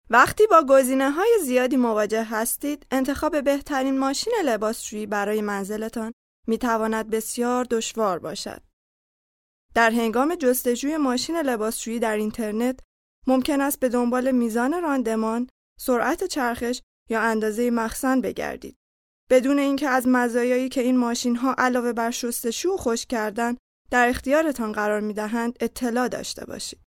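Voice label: Farsi Female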